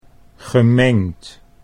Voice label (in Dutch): "gemengd", Dutch